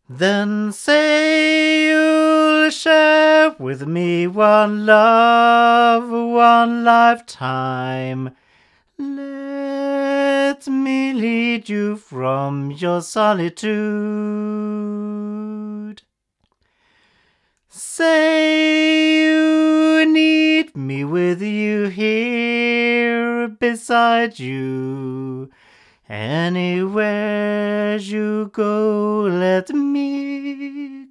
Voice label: male; CT Soundstage Image; microphones; Crowley Tripp